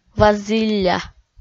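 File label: "вазілля", Komi, female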